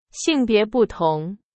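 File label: Chinese, example sentence; hsk3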